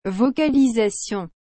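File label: "vocalisation", French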